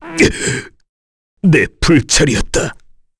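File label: Vox Dead, Korean; Bernheim; kingsraid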